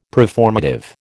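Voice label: "preformative", American English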